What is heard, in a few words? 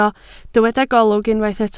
"golwg", Welsh